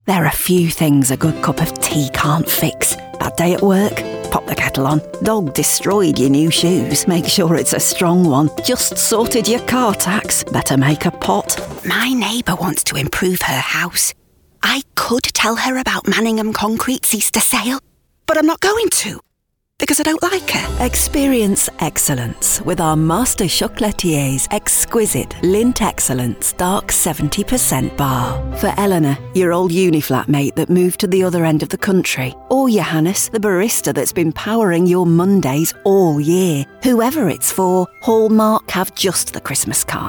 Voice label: english british commercial, comedic